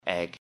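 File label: "egg", English; Common Prepared Foods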